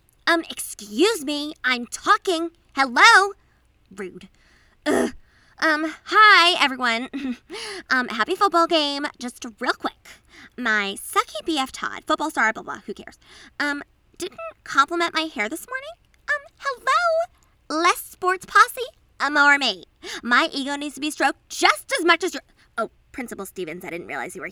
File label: Teen, voice over; Swingtown High; Footballs